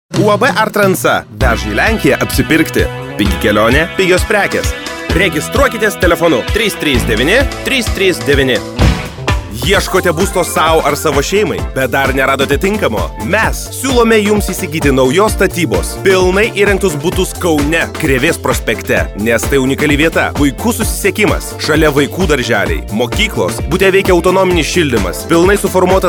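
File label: lithuanian male commercial; voice demos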